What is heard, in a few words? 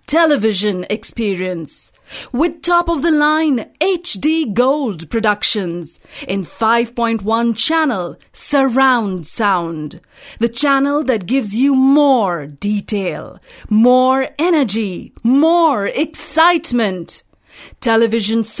discovery, female voice